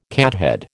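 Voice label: "cathead", American English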